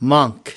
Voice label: "monk", English